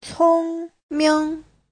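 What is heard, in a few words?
"cōngming", Mandarin Chinese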